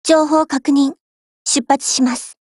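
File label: operation begin, Japanese; HK416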